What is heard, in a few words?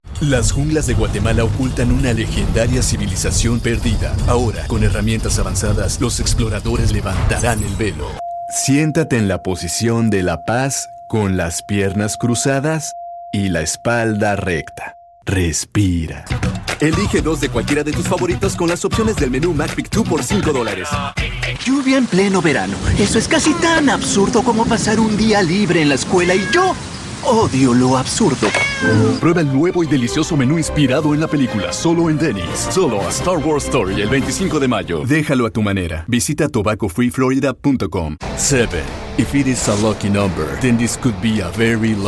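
male, Latin American Spanish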